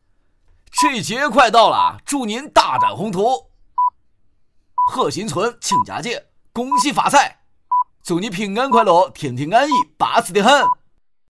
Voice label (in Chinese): 北京 河南 四川 拜年